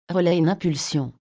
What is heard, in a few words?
relay 2 pulse, fr_FR; SvxLink; RemoteRelay